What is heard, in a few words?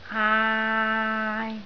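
"aai3", Cantonese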